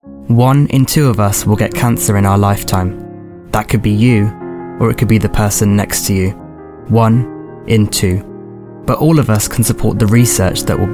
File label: male english appeal; cancer research